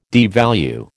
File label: "devalue", American